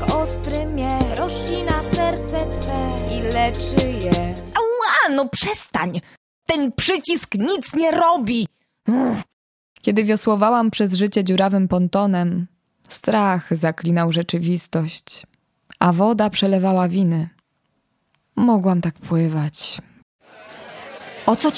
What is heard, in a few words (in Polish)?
ciepły ekspresyjny głos spot piosenka audiobook